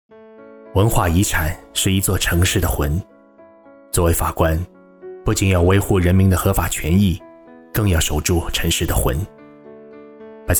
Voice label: 男 角色内心独白

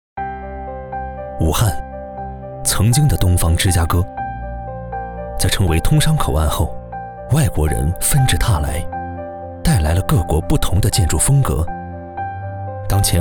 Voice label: 男 宣传片 科技风城市宣传

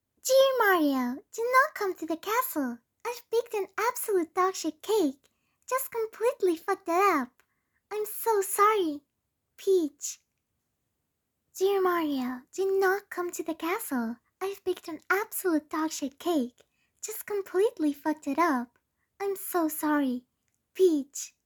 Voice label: 外籍女声 萝莉音

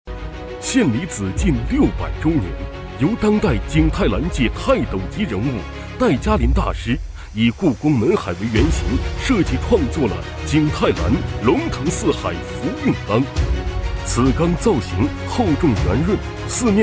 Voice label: 男 广告 电视购物

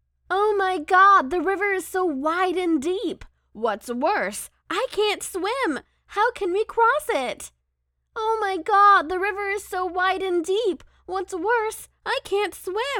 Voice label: 外籍英女 情感多样 不同角色故事书

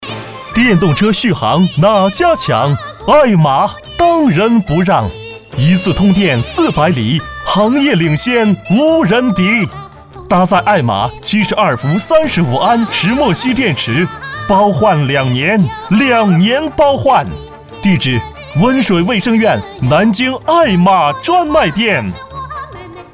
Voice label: 男 促销; 爱玛电动车

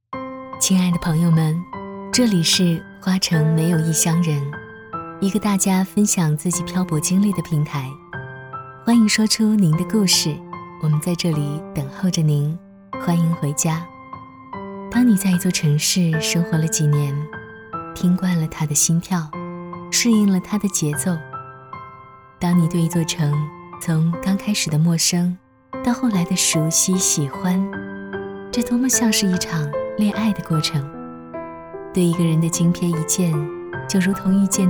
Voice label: 女 电台节目风格; 花城没有异乡人

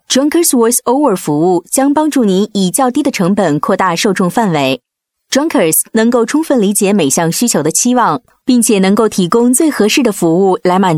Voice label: 女 解说 科技感 解说词

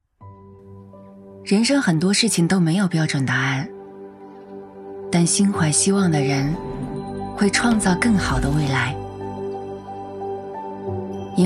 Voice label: 女 旁白 自然素人; 北海牧场

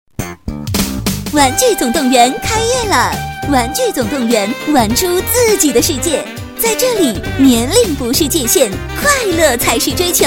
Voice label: 女 开业促销; 玩具总动员

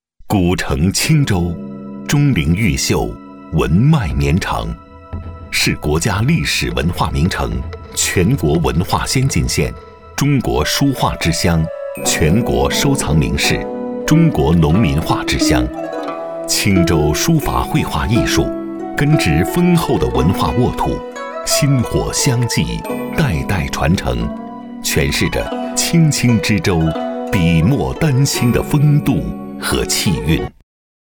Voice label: 男 纪录片; 古城青州

